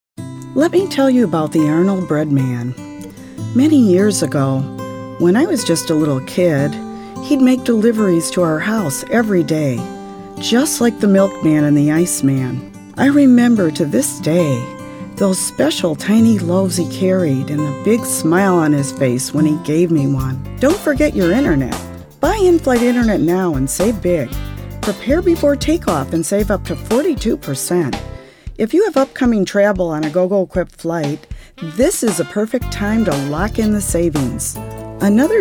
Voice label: Commercial